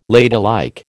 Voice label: "ladylike", American